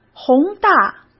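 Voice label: "宏大", Mandarin Chinese; chinese flashcards; hsk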